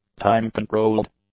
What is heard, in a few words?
"time-controlled", English; adjectives